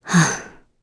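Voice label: Vox Deny, Korean; heroes; Glenwys; kingsraid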